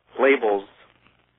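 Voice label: "labels", American English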